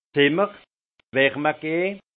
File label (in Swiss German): "zimmer", alsace; vin; chambrer le vin